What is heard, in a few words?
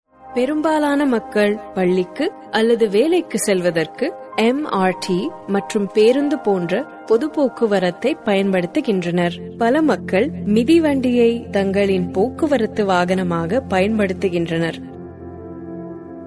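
Tamil, female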